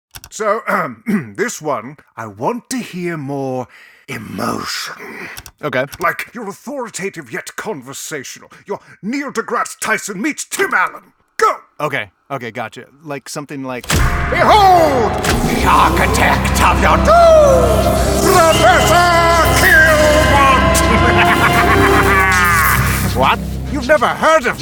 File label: Animation